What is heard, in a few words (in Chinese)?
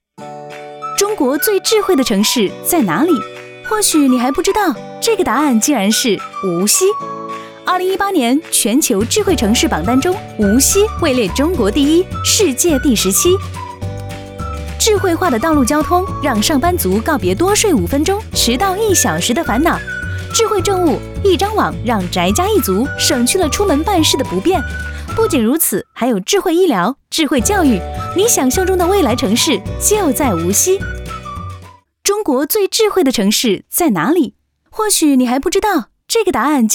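女国大音-【MG动画】; 智慧城市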